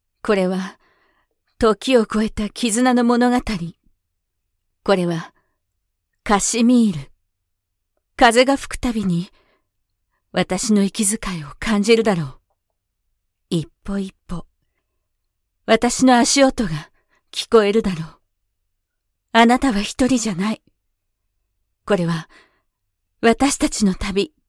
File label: Game Storyteller